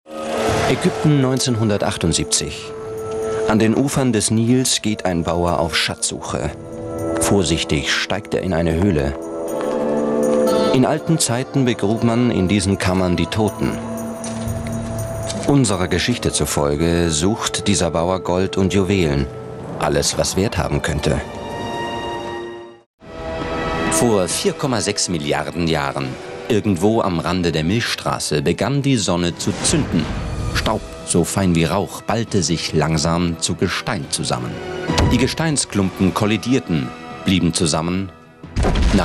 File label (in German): Reportagen